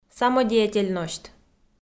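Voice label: "самодеятельносьт", Komi, female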